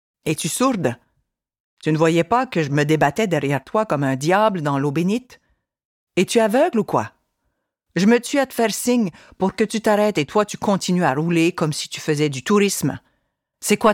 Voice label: Accent acadien Mon village la cote

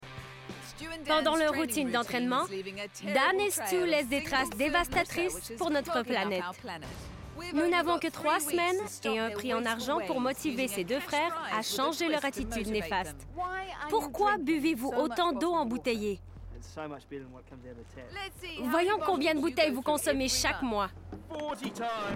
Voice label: Demo Surimpression Vocale

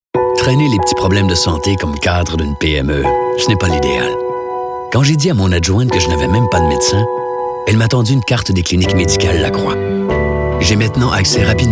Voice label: Voix pub; Les cliniques Lacroix

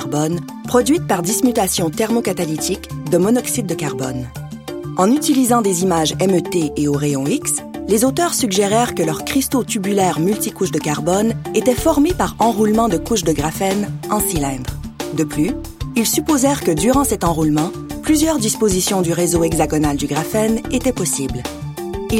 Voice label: narr scientifique fr

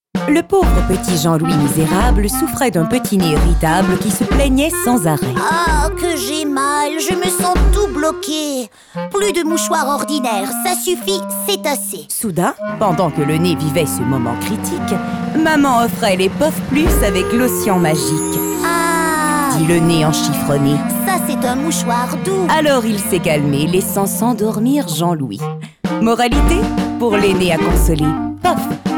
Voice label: Demo voix

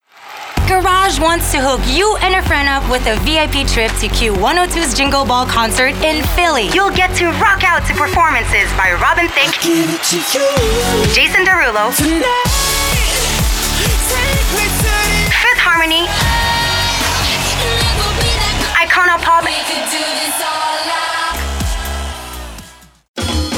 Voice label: VOIX eng

